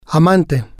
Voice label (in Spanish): "amante", spanish